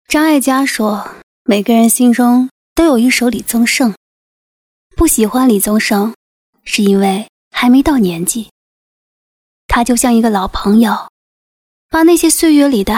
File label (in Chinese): 其他 旁白 素人